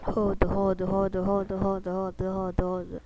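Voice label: "hold", spoken word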